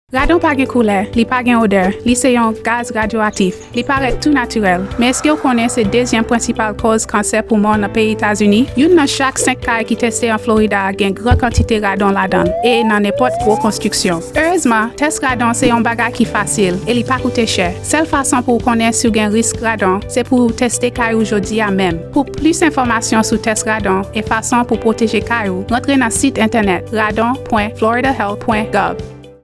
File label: radio psa radon creole